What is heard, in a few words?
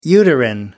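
"uterine", British English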